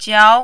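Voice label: "jiao", second tone, Mandarin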